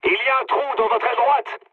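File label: right wing, mood high, French; War Thunder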